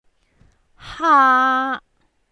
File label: "haa", Cantonese